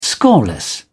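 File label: "scoreless", British English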